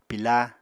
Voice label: "pila", apatani